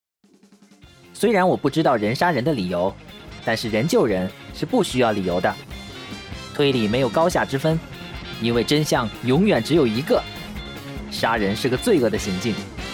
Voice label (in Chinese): 男播; 名侦探柯南; 工藤新一